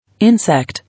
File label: "Insect", English